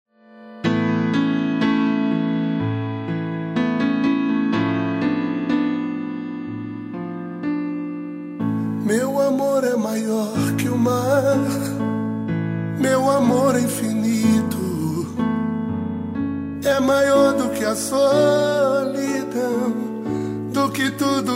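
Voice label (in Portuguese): telemensagem, male; DIA DOS NAMORADOS MASC; telemensagem dia dos namorados geral masculina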